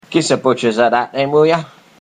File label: Cockney Giz a butchers, accents of english